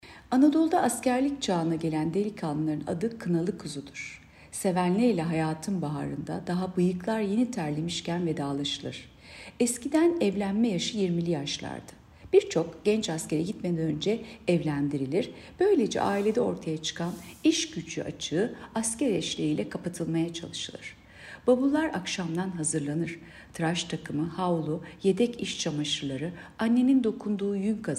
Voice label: turkce